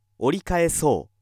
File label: male